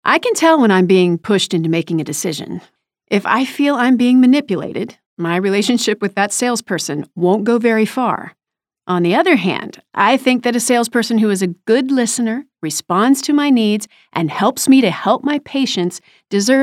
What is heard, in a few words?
30s 40s Female Narration